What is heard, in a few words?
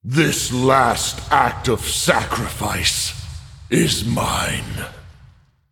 Male Human; ICC; card sounds